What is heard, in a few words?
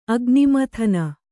"ಅಗ್ನಿಮಥನ", Kannada